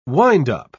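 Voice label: "windup", British English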